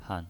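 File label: "han", Mandarin Chinese, neutral tone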